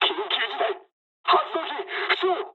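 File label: Japanese damaged engine mood med; War Thunder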